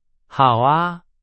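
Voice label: Chinese, example sentence; hsk2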